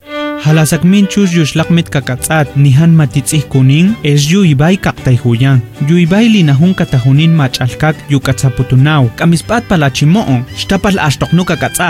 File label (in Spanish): tepehua spot